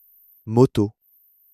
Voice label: "moto", French

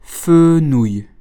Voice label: "Fenouil", learn French

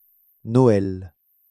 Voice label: "noël", French

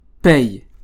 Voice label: "paye", French

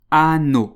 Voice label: "anneau", learn French

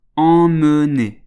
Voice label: "emmener", French

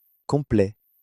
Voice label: "complet", learn French

